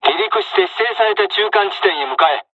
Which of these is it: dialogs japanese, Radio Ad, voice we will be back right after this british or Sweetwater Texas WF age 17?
dialogs japanese